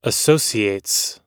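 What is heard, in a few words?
"associates", US English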